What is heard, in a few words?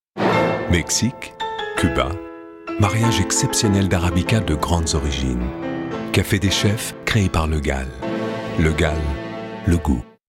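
Voix Off Voice Over, bilingue Américain Français; Cafe Legal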